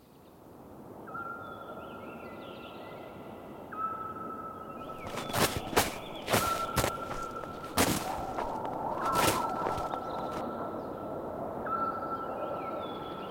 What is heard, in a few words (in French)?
kirghisie